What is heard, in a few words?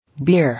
"Beer", English